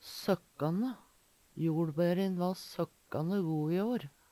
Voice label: sokkanne, Numedalsmål; blir bruka best om noko som er veldig godt

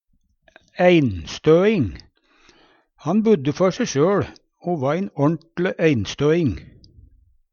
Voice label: "einstøing", Numedalsmål; Substantiv hankjønn; Han budde før se sjøL o va ein orntLe einstøing.

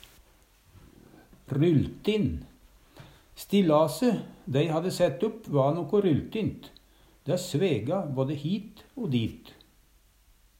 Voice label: ryltin, Numedalsmål

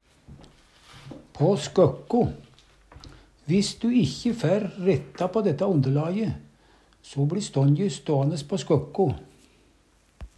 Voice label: "på skøkko", Numedalsmål; Viss du ikkje fær retta på dætta ondelaje, so bLi stånje ståande på skøkko.

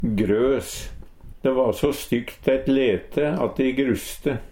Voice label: "grøs", Numedalsmål

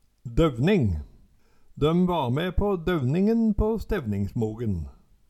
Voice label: "dovning", Numedalsmål

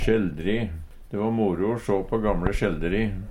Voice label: sjeldri, Numedalsmål; De va moro o sjå på gamle sjeldri.

